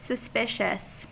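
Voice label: "suspicious", American English; pronunciations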